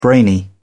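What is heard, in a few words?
"brainy", British English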